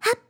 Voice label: jump, RPG Voice; RPG Voice Starter Pack

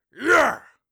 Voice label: excited, orc voice; Orc Voice Pack

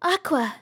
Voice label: aqua, RPG Voice; RPG Voice Starter Pack

